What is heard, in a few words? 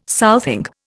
"southing", Indian